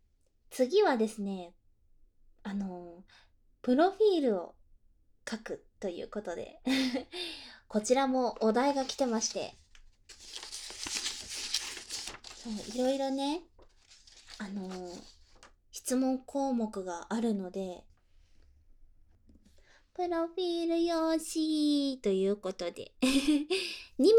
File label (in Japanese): プロフィール記入 バイノーラル, ASMR